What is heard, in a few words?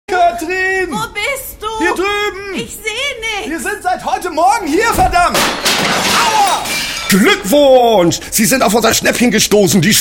Funk-Spot, funny, exaggerated, playful, Commercial, German; Radiozentrale (Role: Husband)